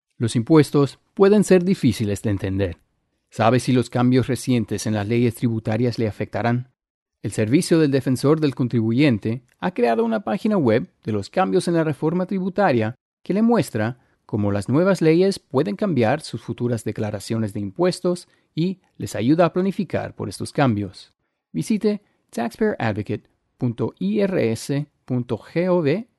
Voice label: Spanish PSA No muisc; Tax Reform; 30 Seconds; Tax Reform Site